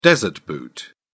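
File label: "desert boot", British English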